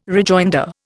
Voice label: "rejoinder", Indian